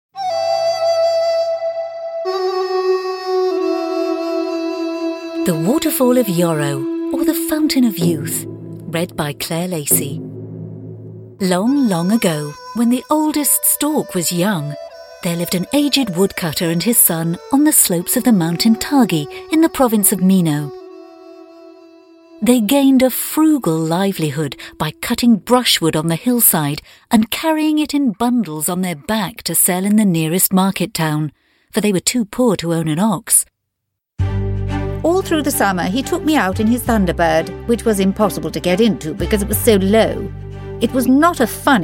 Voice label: audiobook narration, Warmly Reassuring Female Voice